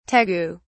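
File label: "tegu", British English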